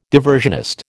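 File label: "diversionist", American English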